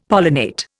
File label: "pollinate", Indian; Dictionary